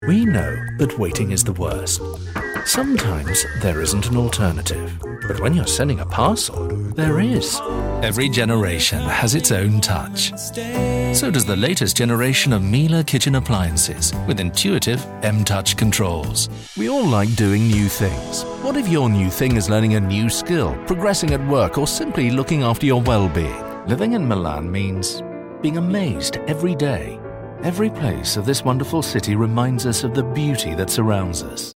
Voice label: commercials soft sell